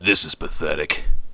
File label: pathetic, bodyguard